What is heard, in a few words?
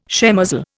"shemozzle", Indian